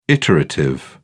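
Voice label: "iterative", British English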